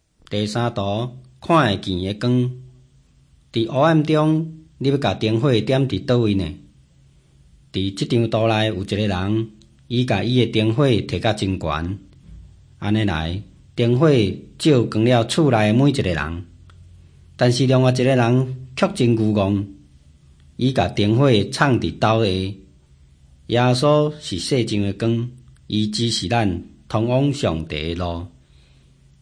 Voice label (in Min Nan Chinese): taiwanese, drama